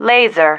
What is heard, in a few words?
"laser", female